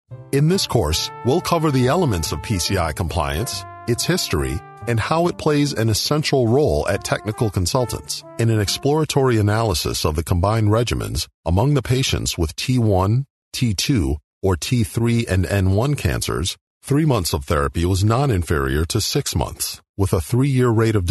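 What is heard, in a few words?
eLearning, African American Voice Actor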